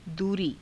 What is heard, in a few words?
"duurii", Hindi